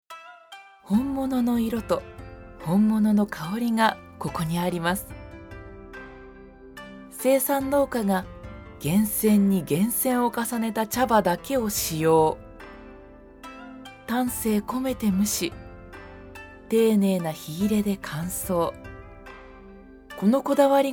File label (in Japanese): ナレーション-低音・落ち着き